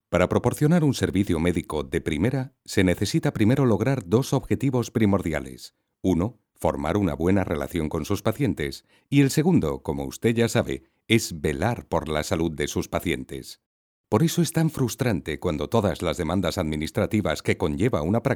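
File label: spanish medical narration, Male; professional Spanish voice actor since 1990; Dubbing actor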